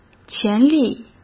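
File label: "潜力", Mandarin Chinese; hsk; chinese-flashcards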